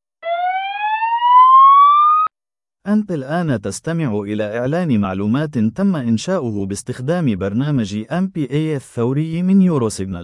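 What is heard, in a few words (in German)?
Infodurchsage, Arabic; MPA-App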